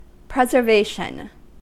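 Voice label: "preservation", US English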